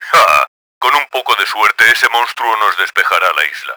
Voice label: hang back, Spanish; Battle Engine Aquila; XBOX